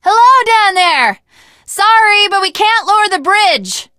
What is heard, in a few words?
initial meeting, teen girl